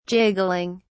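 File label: "jiggling", British English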